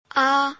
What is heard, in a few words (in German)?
Phoneme, chinesisch; a 1